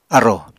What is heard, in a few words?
"arrò", Apatani